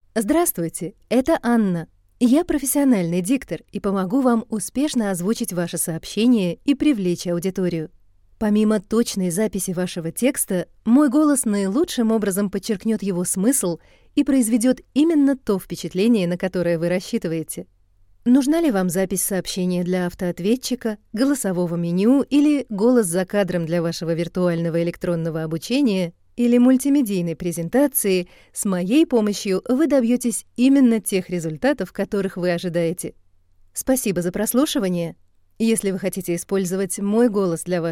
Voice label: Russian